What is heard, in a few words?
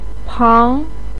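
"pang2", Mandarin pinyin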